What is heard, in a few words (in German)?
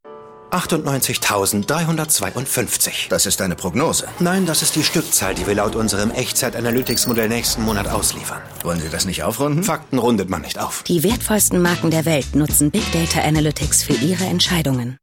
Spots, maenner; HPEnterprise